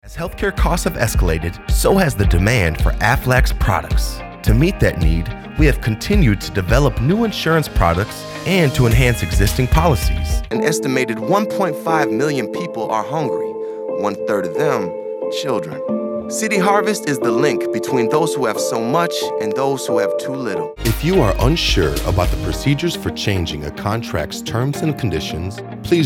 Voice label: Narrative